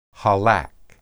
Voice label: "halak", Hebrew

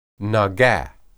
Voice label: "naga", Hebrew

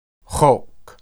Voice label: "hoq", Hebrew

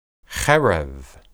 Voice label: "hereb", Hebrew

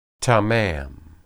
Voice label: "tamam", Hebrew

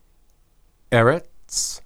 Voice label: "erets", Hebrew

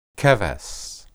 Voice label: "kebes", Hebrew

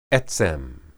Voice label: "etsem", Hebrew

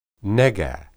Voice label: "nega", Hebrew

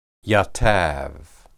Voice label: yatab, hebrew

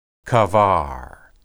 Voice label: "qabar", Hebrew

